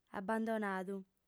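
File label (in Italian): "abandonadu", sarda; fem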